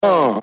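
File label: "uh", male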